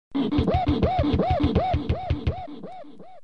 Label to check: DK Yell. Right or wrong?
Right